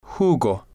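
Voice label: "jugo", Spanish, male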